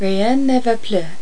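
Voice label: "rien", French; Virtual Casino: Secret Fantasies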